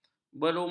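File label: "berumur", Malay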